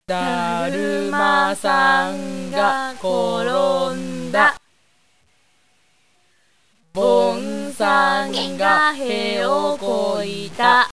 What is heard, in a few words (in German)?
japanisch